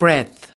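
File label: "breadth", US English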